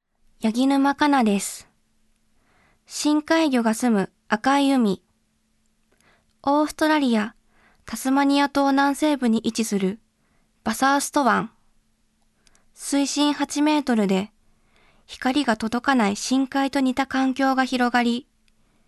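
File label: Voice Sample, Narration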